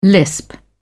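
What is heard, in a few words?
"lisp", British English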